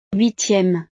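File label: "huitieme", French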